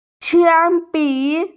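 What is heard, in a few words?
"qiān bǐ", Mandarin Chinese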